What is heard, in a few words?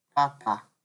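"papa", Nigerian Pidgin, male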